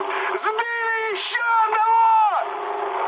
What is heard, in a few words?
player killed enemy, mood high, speech ru; War Thunder